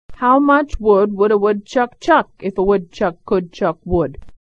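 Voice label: woodchuck, American English pronunciation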